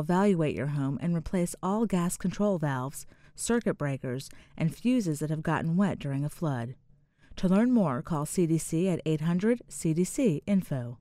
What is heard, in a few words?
psa disaster appliances female voice